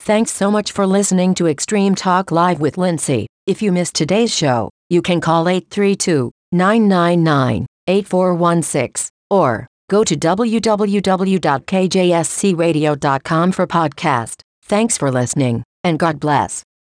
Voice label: extreme talk live outro femail voice

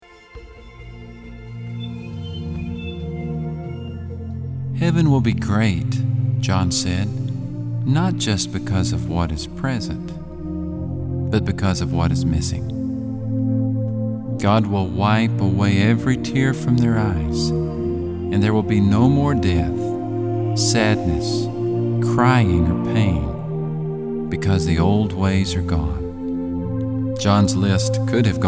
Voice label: Narration

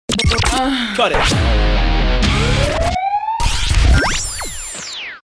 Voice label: Voice Accent - Cut It; DJ sounds

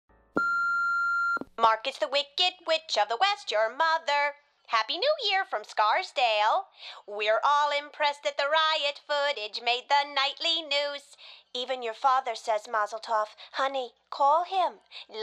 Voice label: Voice Mail

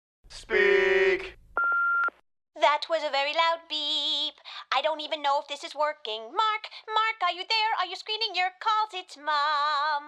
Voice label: Voice Mail

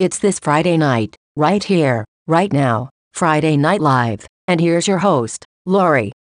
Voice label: friday night live second imagery voice

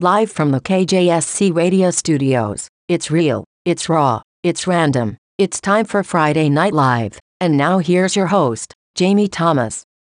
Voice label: intro feemail voice; friday night live

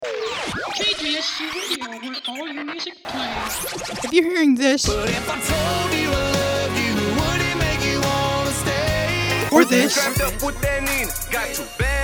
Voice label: imagery for radio; KJSC radio

